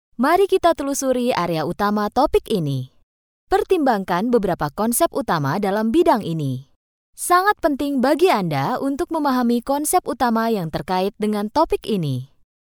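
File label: Indonesian, female, e-learning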